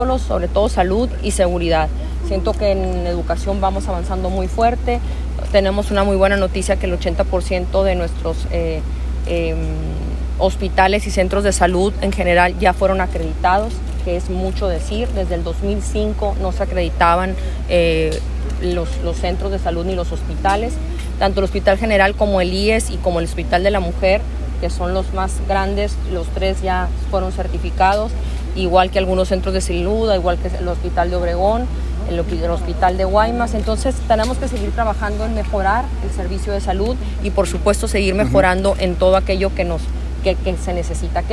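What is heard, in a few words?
interview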